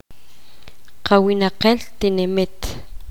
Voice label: poqomam; grupo tnico

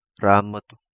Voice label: "Ramotu", Kapingamarangi

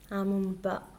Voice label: wash hands, Mokilese

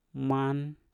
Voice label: "man", Mpur; bulan

